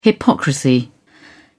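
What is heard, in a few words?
"hypocrisy", British English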